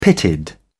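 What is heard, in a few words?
"pitted", British English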